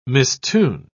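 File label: "mistune", British English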